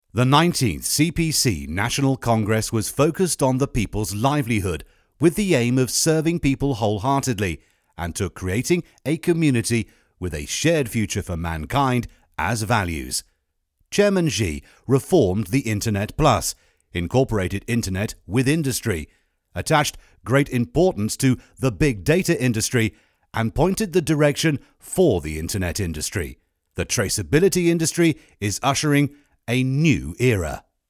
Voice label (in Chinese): 英文配音; 政治体制改革; 一带一路战略